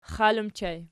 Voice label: xal ergpl, archi